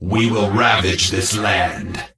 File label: Death Knight Male Low Farewell; Scourge